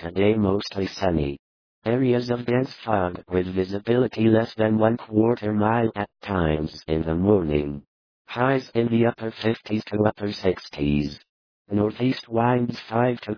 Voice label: Weather San Jose CA Male